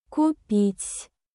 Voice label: russian phrases